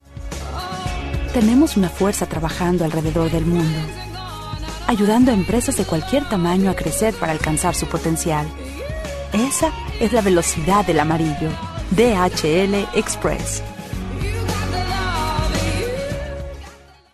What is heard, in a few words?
Spanish Mexican F Ad